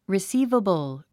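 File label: "receivable", English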